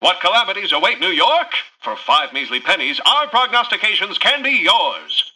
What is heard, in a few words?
Newscaster headline